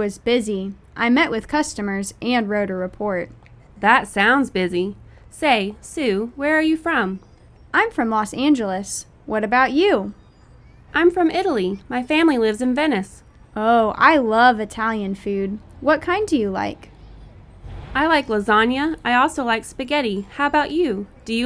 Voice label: Conversation, English; Unit Two; beautiful days 1